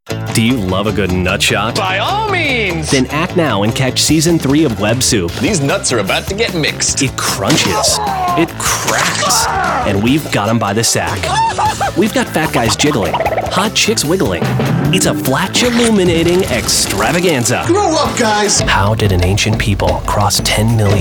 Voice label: Promo